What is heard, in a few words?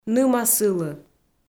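"ныма сылы", Komi, female; audio dict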